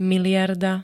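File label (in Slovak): "miliarda", Slovak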